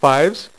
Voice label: "fives", dealer's speech; PowerPOKER Demo